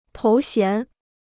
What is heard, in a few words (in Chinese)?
"头衔", Chinese